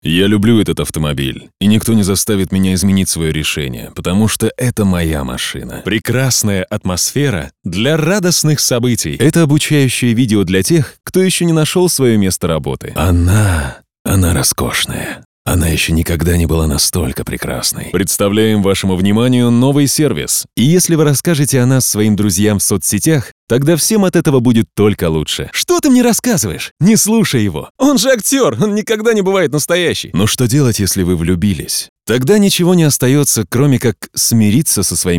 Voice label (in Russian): Эталонный универсальный мужской голос. Очень гибкий; Мужские голоса